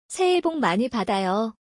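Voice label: Korean, 새해복 많이 받아요 (saehae’bok mani bada’yo) - frm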